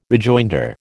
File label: "rejoinder", American